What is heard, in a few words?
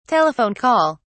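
"telephone call", British English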